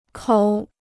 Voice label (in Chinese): "口", Chinese